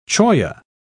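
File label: "cholla", British English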